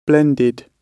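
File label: "blended", British English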